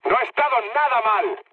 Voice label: trick, mood med, speech; War Thunder

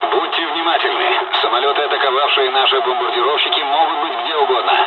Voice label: dialogs russian; War Thunder

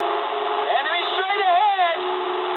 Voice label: eng enemy detected mood med, speech eng

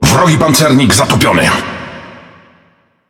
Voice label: Speech Destroy Enemy Battleship, PL; World Of Warships

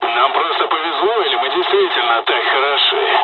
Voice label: dialog, dialogs russian; us midway; War Thunder

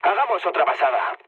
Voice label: bomb failure, mood low, speech; War Thunder

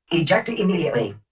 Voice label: eject immediately, Titan EN Tone Voice; Titanfall 2

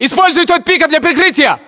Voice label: order cover pickup, ru

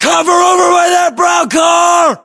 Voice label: order cover car brown, us

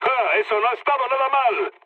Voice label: trick, mood low, speech; War Thunder

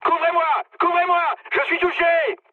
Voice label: aircraft damaged mood high, speech fr

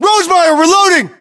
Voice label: inform reloading, US

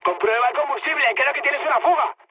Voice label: tank damaged, mood high, speech; War Thunder

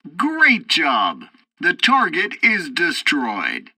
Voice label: tutorial fighter fight, english; War Thunder

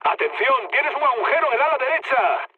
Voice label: right wing, mood low, speech; War Thunder

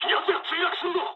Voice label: aircraft destroyed mood low, speech jp; War Thunder

